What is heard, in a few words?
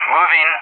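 "moving", English; JTAC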